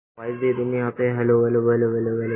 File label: Voice Note